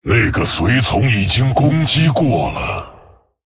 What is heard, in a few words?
Male Dragon ERROR MINION ATTACKED; 随从已攻击; 那个随从已经攻击过了。; 风暴巨龙迦拉克隆（英雄）; Minion exhausted; That minion already attacked.; zh